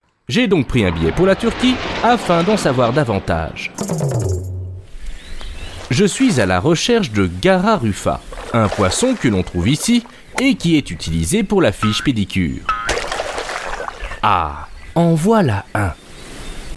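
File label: French, male, explainer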